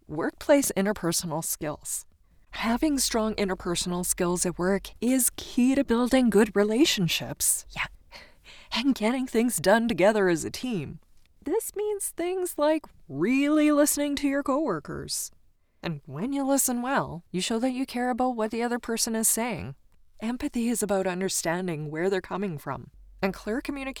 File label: eLearning; OUTSIDER WORKPLACE INTERPERSONAL SKILLS; corporate explainer